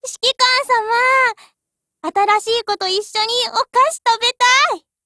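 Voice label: BUILD OVER Japanese, Voice; GF Alarm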